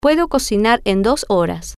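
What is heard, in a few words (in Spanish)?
Puedo Cocinar Dos Horas, spanish, female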